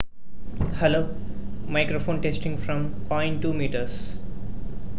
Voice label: human voice 0.2m